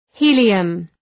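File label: "helium", English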